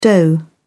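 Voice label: "doe", British English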